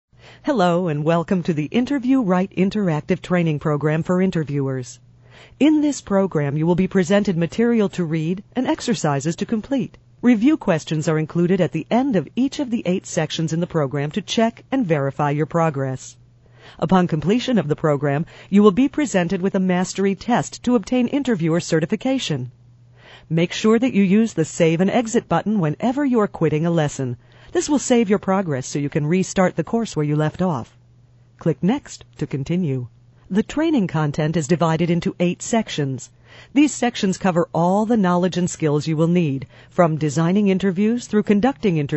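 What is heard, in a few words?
eLearning Female, Strong, Sexy, Vibrant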